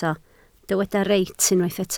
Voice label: "reit", Welsh